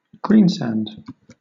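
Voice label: "greensand", British English; pronunciations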